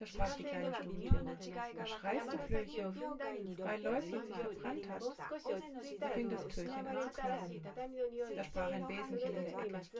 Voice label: female synth conv mix